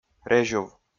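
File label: "резюв", Komi, male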